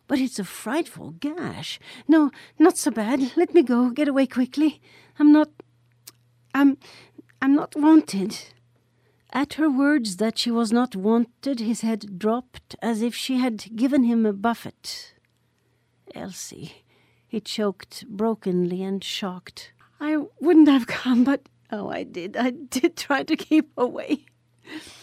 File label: distraught female, uncomfortable male; the Beckoning Fair One